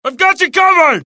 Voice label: suppress, dialogue generic US; mohaa

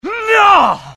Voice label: anger, dialogue IT; mohaa; attack

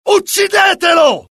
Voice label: alert, dialogue IT; mohaa; attack

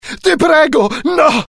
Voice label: fear, IT; mohaa; dialogue

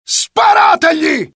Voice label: alert, dialogue IT; mohaa; attack

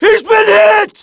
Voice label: panic; mohaa; dialogue

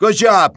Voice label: impressed; mohaa; dialogue

